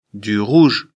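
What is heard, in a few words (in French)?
"rouge", French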